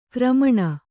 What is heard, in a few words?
"ಕಾರ್ಮಣ", Kannada